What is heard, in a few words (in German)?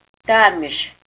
"damisch", Erzgebirgisch